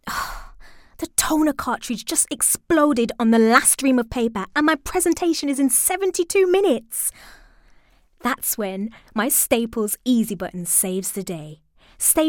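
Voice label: British English, Commercial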